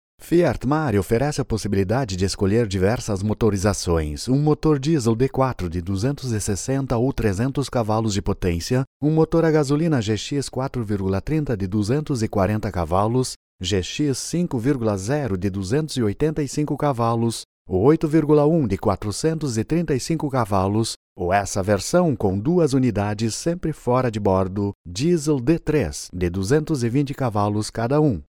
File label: video presentation